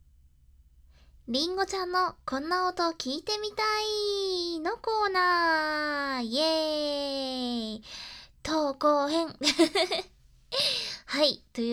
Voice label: 投稿コーナー バイノーラル, ASMR

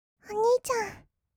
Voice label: "おにいちゃん", kawaii voice; ASMR; 二人称; 1200 kawaii voice!!

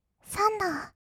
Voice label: "サンダー", kawaii voice; ASMR; 色々なワンショット

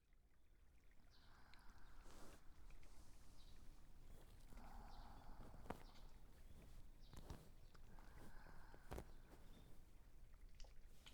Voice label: 密着マッサージ, ASMR

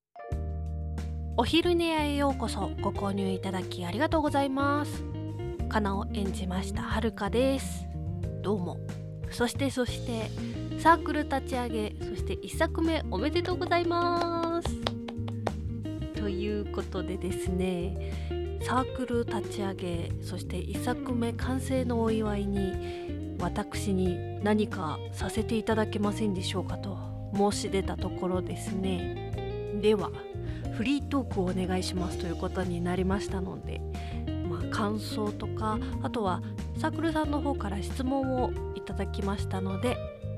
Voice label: フリートーク

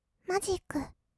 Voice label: "マジック", kawaii voice; 1200 kawaii voice!!; 色々なワンショット

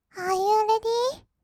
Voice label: アーユーレディー, kawaii voice; 音ゲー

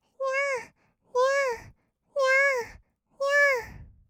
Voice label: にゃあにゃあ, kawaii voice; 合いの手; 1200 kawaii voice!!; ASMR

